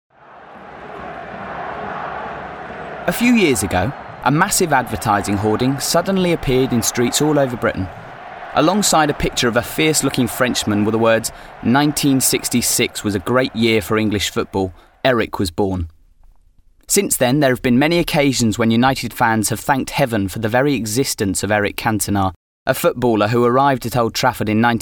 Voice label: Narration; Cantona; Vocal Point